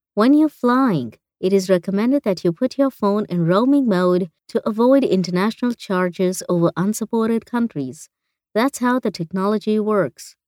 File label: english indian e-learning